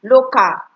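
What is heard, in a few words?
"local", Nigerian Pidgin, female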